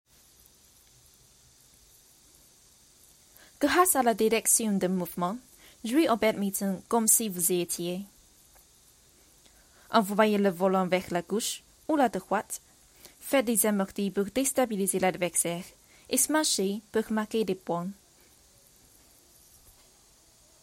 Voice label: 法语试音 游戏宣传片; 任天堂

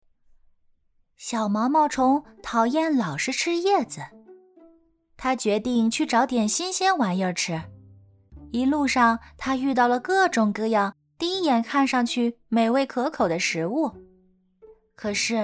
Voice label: 儿童读物 亲切可爱活泼; 毛毛虫

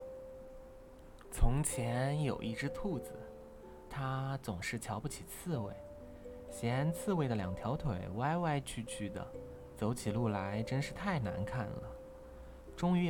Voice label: 睡前故事

